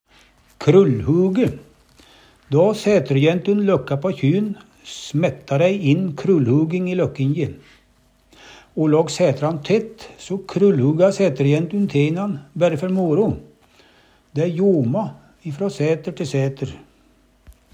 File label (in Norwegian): krullhuge, Numedalsmål